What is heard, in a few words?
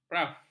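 "kraf", Malay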